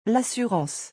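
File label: "l assurance", French; vocabulaire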